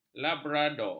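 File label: "labrador", Malay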